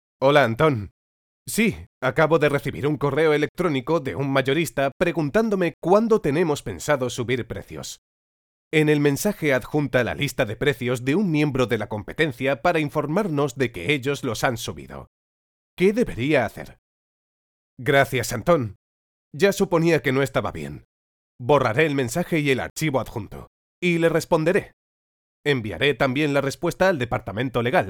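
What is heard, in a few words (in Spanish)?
e-learning, Spain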